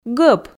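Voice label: "гӧп", Komi, female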